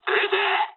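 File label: Japanese attack position mood med; War Thunder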